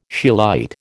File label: "scheelite", American